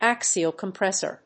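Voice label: "axial compressor", English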